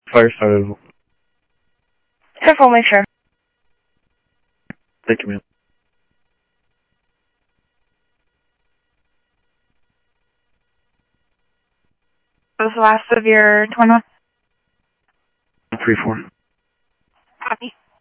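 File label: Sheriff East County, scanner audio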